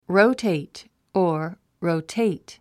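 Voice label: "rotate", English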